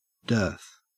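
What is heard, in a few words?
"dearth", Australian English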